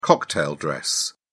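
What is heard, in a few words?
"cocktail dress", English, British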